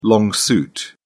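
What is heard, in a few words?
"long suit", British English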